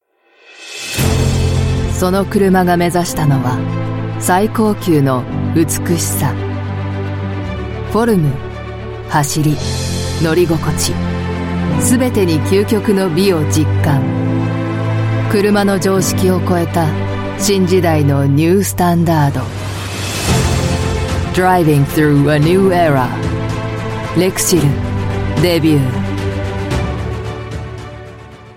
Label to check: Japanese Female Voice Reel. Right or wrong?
Right